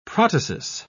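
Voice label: "protasis", British English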